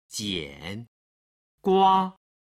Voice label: "jian gua", Chinese